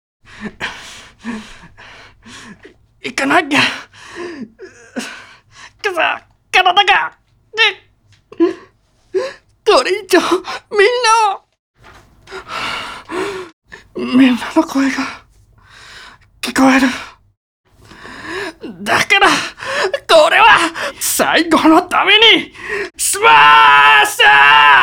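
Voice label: CHARACTER Japanese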